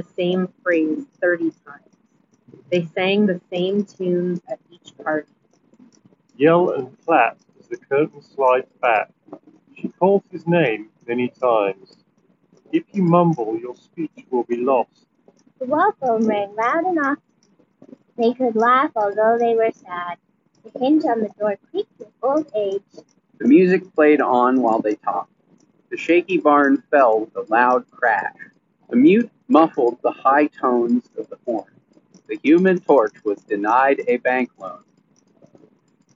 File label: Wind microphone sample; Audio-technica ATH-M20xBT